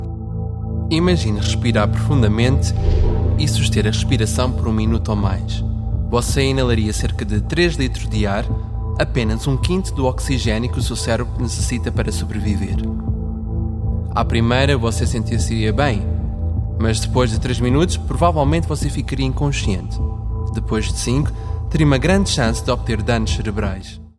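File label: Portuguese; Portuguese, Male, 20s-30s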